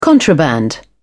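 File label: "contraband", British English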